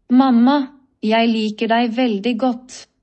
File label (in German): Norwegisch; Mama, i han di gera